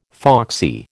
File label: "foxy", American